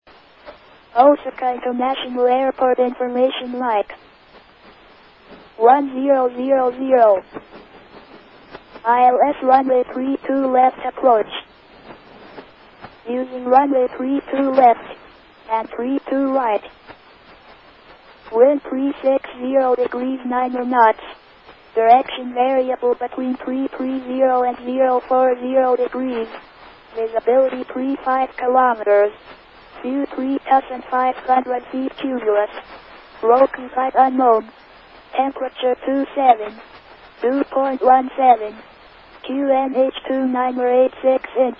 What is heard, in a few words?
ATIS, Japan; osaka; Itami